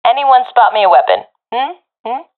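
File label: request weapon, professional female; vo; Counter Strike Model Pack; CS:GO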